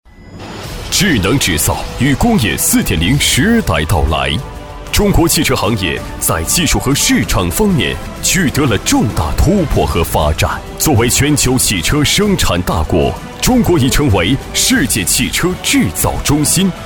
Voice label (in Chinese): 男 企业宣传 快速力度; 西菱动力